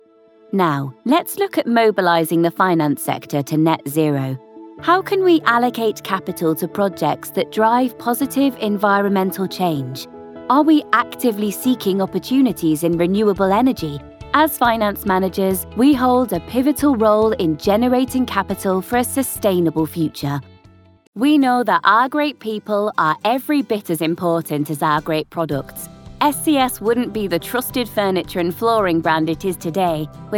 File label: english british corporate videos, british voice actors